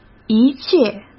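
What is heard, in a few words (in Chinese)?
"一切", Mandarin Chinese; hsk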